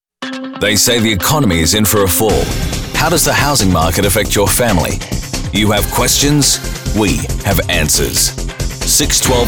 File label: Male Voice Over Talents Radio Imaging News